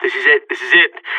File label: scared emote; Counter Strike; CS:GO; seal